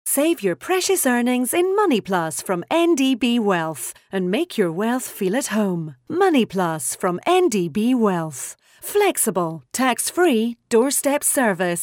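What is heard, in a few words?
Scottish English, female, voice over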